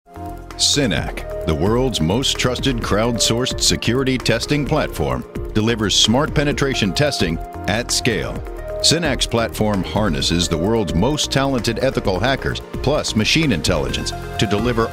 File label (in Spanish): Ingles USA Canada Voz masculina grave